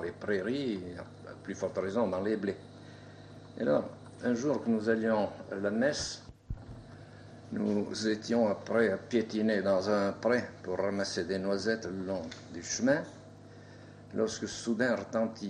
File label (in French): Le garde-champetre francais